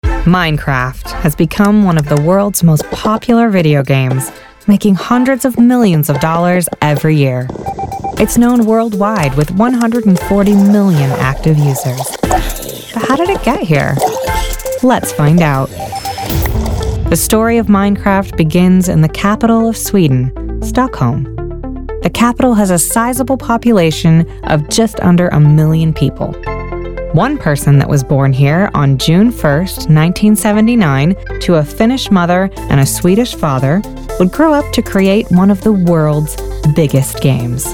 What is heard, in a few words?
Narration Reel; Minecraft